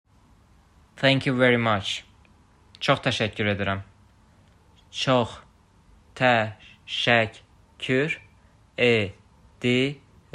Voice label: Thank you very much Cox təsəkkur edirəm, azerbaijani